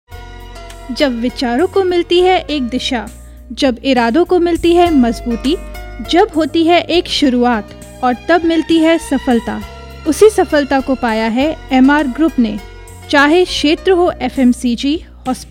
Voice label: hindi, female voice